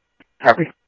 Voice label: scanner audio; Thompson Peak; ADOT Roadway; ArizonaDepofTran